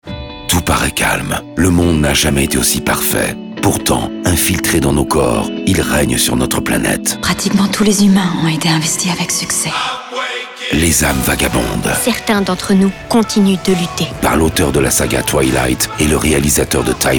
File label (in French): spot